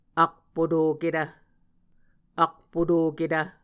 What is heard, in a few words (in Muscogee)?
"akpotoketv", Muscogee; Mvskoke Words with Voicing Consonants; Martin, Jack B., and Margaret McKane Mauldin. 2023. A Dictionary of Creek/Muskogee; SIL International